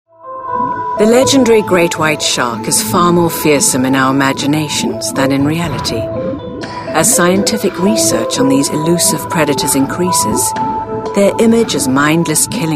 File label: english uk female narrative